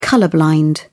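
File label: "colour blind", British English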